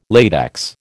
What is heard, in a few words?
"latex", American